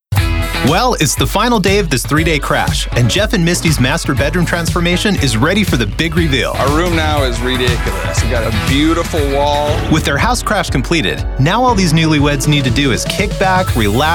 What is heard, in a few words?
In Show Narration